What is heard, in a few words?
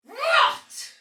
Attack, Soldier Female, Battle; Dialog; Barks; Sinecure